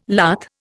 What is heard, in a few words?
"lath", Indian